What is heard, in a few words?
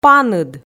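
"паныд", Komi, female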